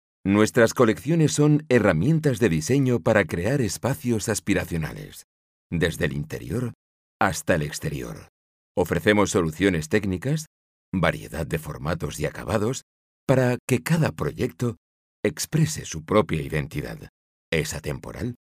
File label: locutor espanol spanish voiceover; videos de empresa